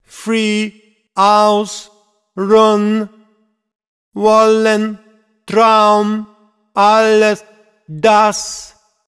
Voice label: cinematic voice, ru; lineage2